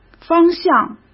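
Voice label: "方向", Mandarin Chinese; hsk; chinese-flashcards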